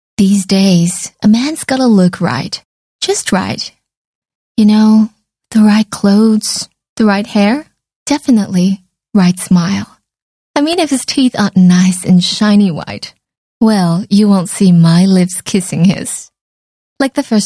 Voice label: Asian English Commercial Mood

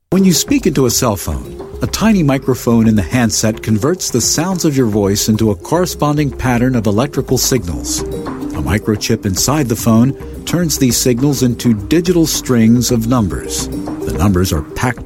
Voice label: US English Educational Explainer Narration

